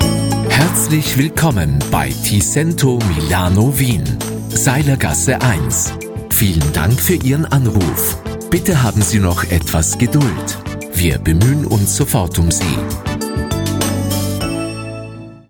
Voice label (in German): TELEFONANSAGE TEXT VOR MELDEN; Ti Sento